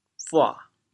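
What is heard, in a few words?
"bua3", Teochew, chaoyang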